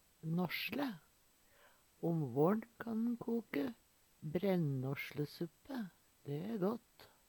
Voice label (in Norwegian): "nåssle", Numedalsmål; Substantiv hokjønn; Planteriket